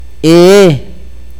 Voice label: diente, akateko

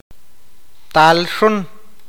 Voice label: "hija", awakateko

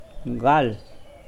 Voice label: Nawat palabras casa; pipil

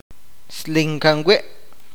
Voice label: "agudo", awakateko

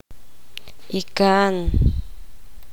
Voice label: poqomam tio el hermano mayor de su padre

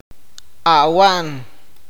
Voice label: "saber", awakateko

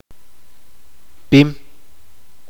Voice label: "grueso de objetos planos", chuj

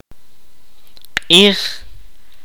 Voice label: "sol", Kaqchikel

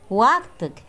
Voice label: Nawat palabras seco; pipil

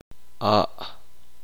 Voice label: "pierna", uspanteko

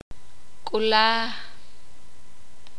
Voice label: "pareja", Sakapulteko

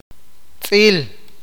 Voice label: "sucio", awakateko

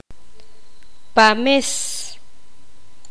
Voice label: poqomam vientre

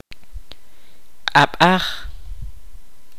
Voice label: "mano", Kaqchikel

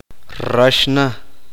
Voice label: uspanteko inmaduro

